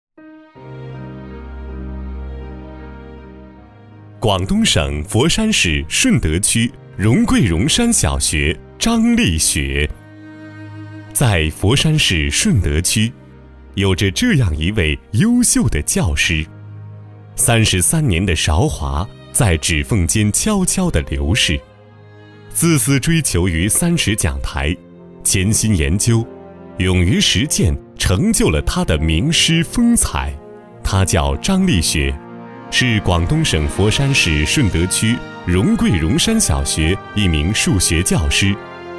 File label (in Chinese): 男, 专题, 人物, 大气深沉